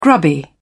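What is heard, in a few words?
"grubby", British English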